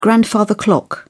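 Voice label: "grandfather clock", English, British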